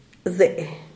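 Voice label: "zee", Zapotec, Díaz Ordaz; elote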